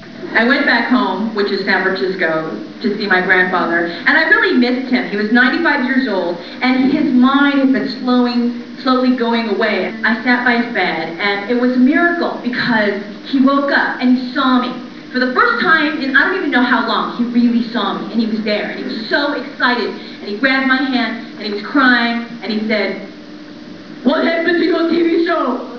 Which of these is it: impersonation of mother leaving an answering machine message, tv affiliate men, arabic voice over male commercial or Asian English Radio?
impersonation of mother leaving an answering machine message